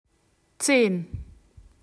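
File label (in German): "zehn", German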